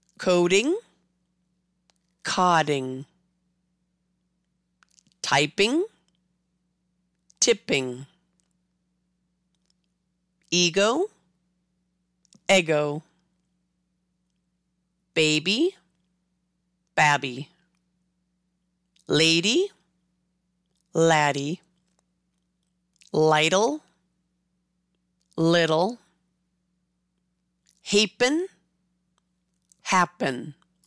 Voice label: coding to happen, double consonants; double consonants affect vowel sounds in English

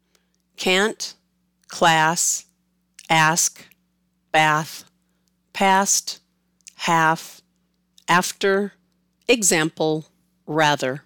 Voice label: Cant to Rather, american english

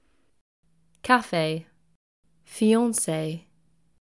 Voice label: British Cafe Fiancee, pronunciation

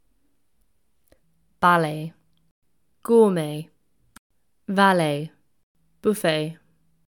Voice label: British Ballet to Buffet, pronunciation

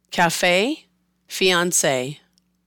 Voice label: Cafe Fiance, american english

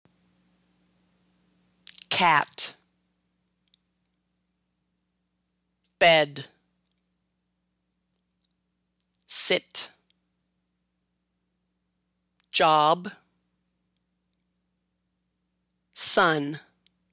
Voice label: Short Vowel, American English; long and short vowels in American English

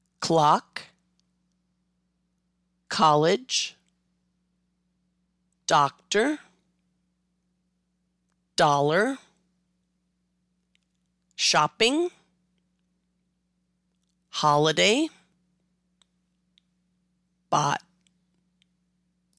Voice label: Short O, American English; long and short vowels in American English

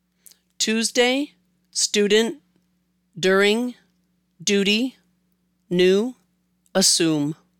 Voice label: Tuesday to Assume, American British English

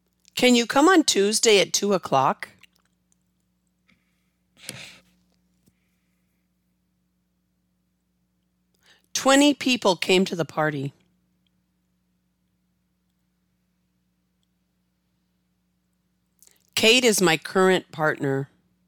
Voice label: plosives; plosive pronunciation

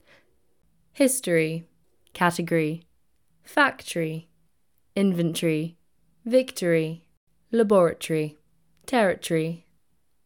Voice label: Brit History to Territory, british english

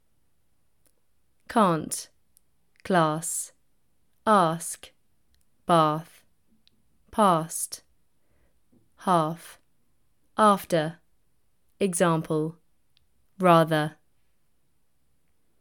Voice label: Brit Cant to Rather, british english

